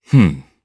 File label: Vox think, jp; kingsraid